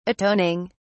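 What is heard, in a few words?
"atoning", English, uk British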